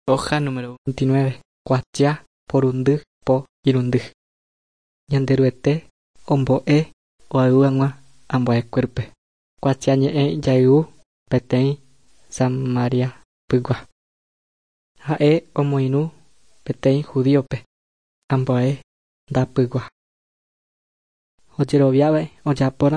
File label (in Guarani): guarani mbya; good news and words of life; bible